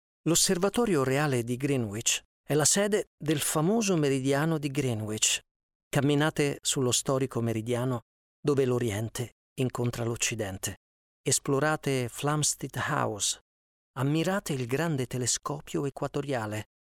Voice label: italian documentaries

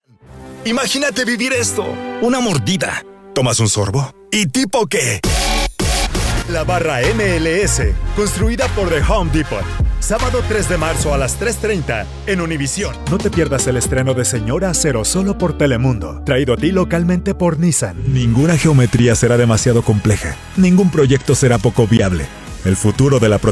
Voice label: spanish latin american promos

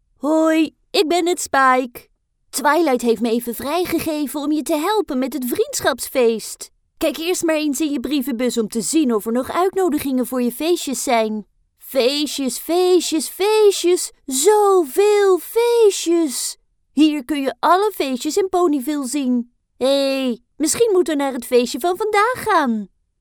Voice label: dutch animation

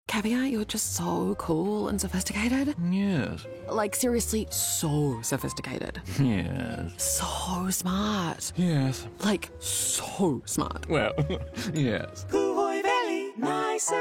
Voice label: english new zealand impersonations; voice actor

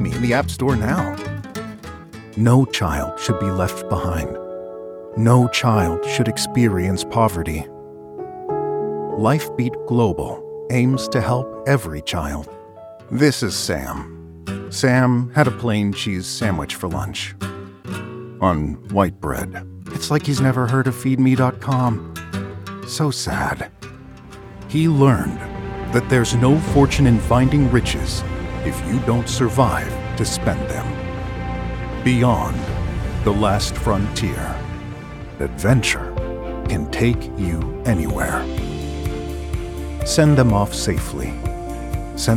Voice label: english american commercial; professional American English Voice Actor; United States

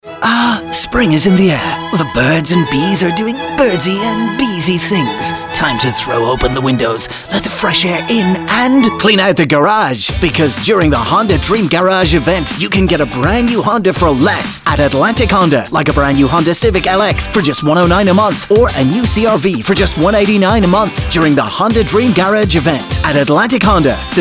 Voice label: english irish automotive

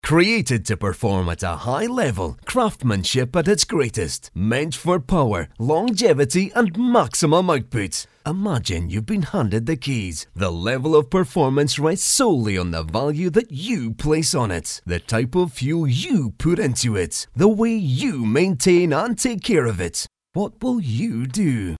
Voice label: english scottish promos

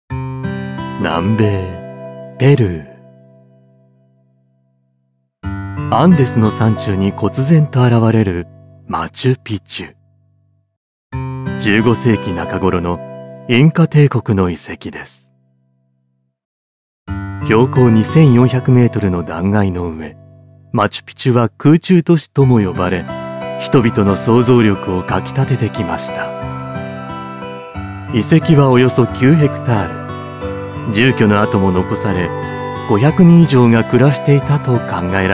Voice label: japanese audio guides

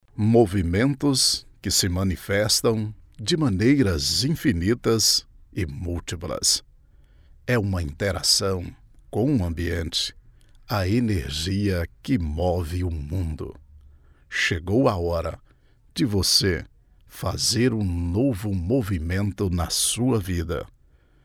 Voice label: portuguese brazilian documentaries

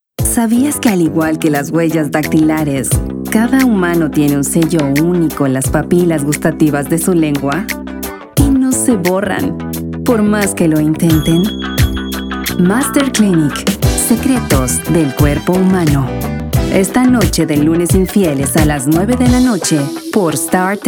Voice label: spanish latin american promos

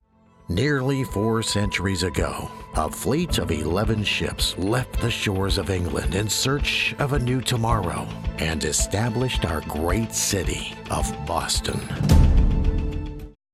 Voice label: english american documentaries

